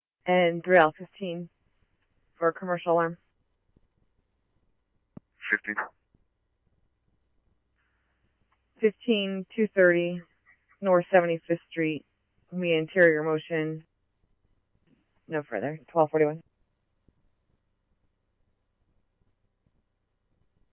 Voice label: scanner, Scottsdale Police